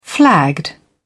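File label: "flagged", British English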